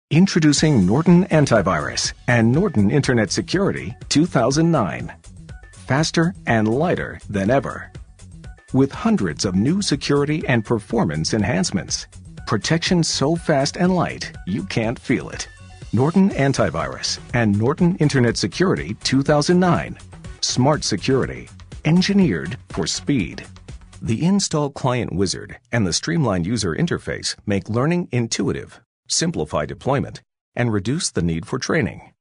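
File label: narration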